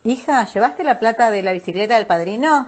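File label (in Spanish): "bicicleta", Spanish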